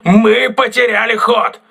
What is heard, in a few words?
voice message lost propulsion, russian